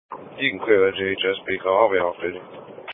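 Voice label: Police Patrol Dispatch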